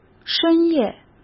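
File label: "深夜", Mandarin Chinese; hsk; chinese flashcards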